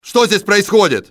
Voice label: whats happening here, russian soldier; XBOX